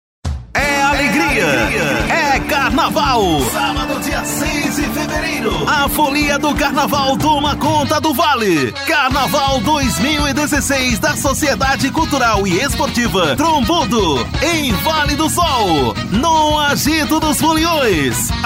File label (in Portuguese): locutor